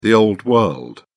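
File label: "old-world", British English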